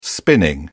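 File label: "spinning", British English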